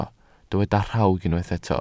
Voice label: "rhawg", Welsh

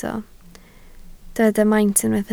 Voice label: "maint", Welsh

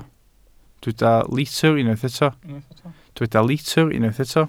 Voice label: "litr", Welsh

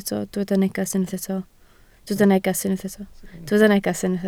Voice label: "neges", Welsh

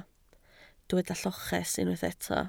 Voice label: "lloches", Welsh

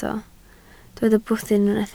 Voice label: "bwthyn", Welsh

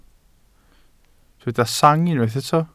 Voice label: "sang", Welsh

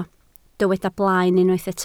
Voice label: "blaen", welsh

